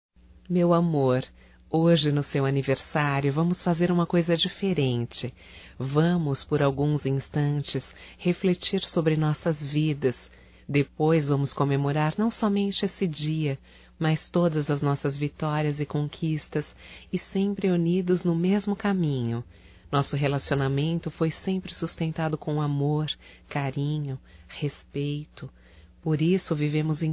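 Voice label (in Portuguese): romântico female, Telemensagens Aniversarios; Telemensagens 2017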